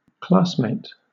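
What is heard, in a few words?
"classmate", British English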